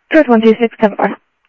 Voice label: Sheriff, scanner audio

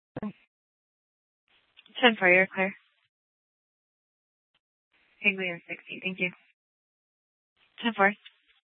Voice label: Dispatch, scanner

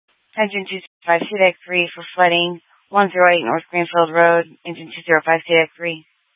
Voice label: FD Dpts VHF 156.240 Patch

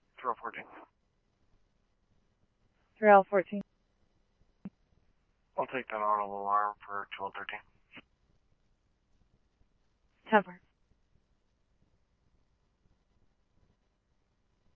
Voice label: scanner, Scottsdale Police; SPD - PD2; RWC; Thompson Peak High

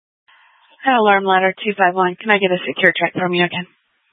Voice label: Fire Departments, scanner

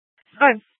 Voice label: Dispatch, scanner; Regional Wireless Cooperative (RWC); Scottsdale PD; Simulcast H- Scottsdale; Nth Dispatch

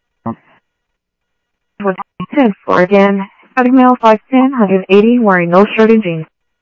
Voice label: scanner audio trunk

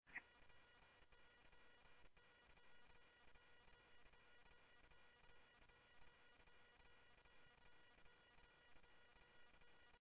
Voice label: Mesa Simulcast, MESA PD, scanner audio

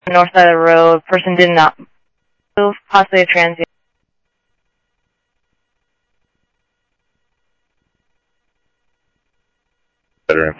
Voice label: Sheriff, scanner audio

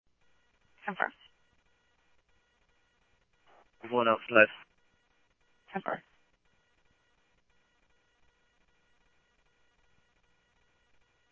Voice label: Tempe Police, scanner; SimulcastF-Tempe

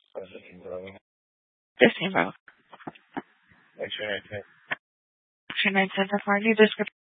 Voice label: Phx PD Dispatch, scanner; A05 500 Central City Prec; C- Chandler

